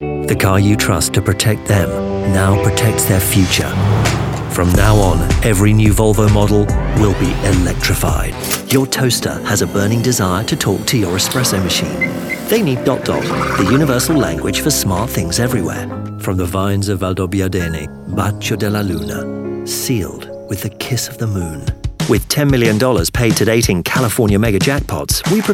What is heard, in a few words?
male british voiceover commercial